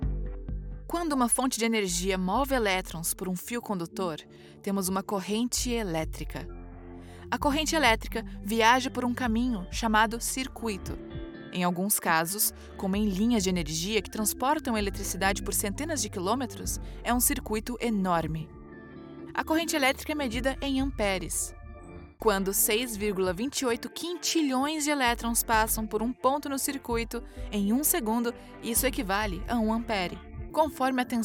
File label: education young friendly discovery female brazilian portugues